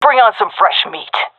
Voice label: round won, fem; vo; agents; Source Model Packs; Counter Strike Model Pack; CS2

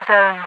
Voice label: zone, radio voice; Half Life 2

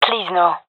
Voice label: disagree, gendarmerie fem epic; vo; agents

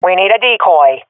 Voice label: request decoy, swat fem; vo; agents; Source Model Packs; Counter Strike Model Pack; CS2

